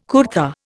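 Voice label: "kurta", Indian English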